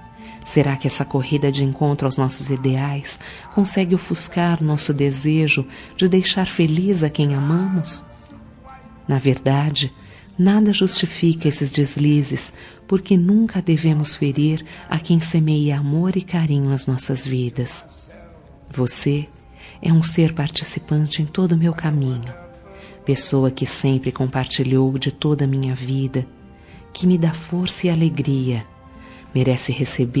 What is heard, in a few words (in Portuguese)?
ROM DESCULPA ESQ ANIV CASAMENTO FEM, Telemensagens; Desculpas; Telemensagens 2017